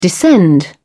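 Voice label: "descend", British English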